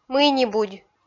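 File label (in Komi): "мый-нибудь", Komi, female; kpv audio dict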